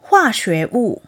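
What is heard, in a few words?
"huaxuewu", chemical material, Chinese; 化学物; Chemicals; Earth Day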